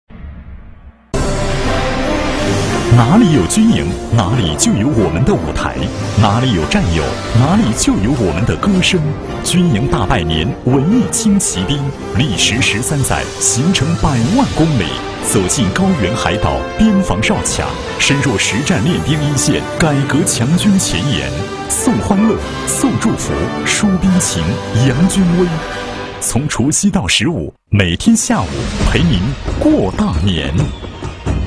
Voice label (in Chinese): 男 栏目包装 军事频道, 浑厚磁性, 国语男声配音; 军营大拜年